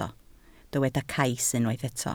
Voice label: "cais", Welsh